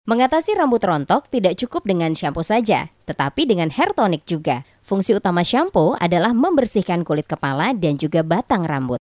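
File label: female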